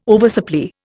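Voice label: "oversupply", Indian English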